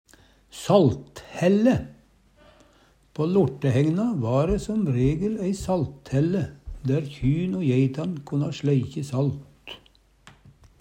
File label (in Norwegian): "salthaelle", Numedalsmål